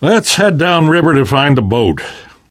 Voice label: harbor blocked, survivor voice; Left 4 Dead 2; Source Engine; video games; namvet

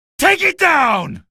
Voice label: alert gunship, male; video games; npc; source engine; episodic; episode 1

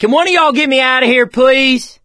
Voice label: call for rescue, survivor voice; source engine; left 4 dead 2

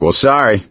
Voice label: sorry, survivor voice

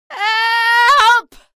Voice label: evac casualty, female; episodic; episode 1; Source engine

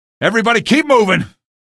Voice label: squad flee, male; video games; npc; source engine; episodic; episode 1

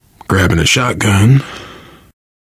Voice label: take shotgun, player survivor voice biker

